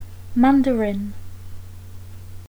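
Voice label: "mandarin" English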